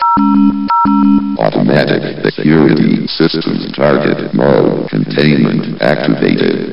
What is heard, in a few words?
grunts sentry change; sven; vox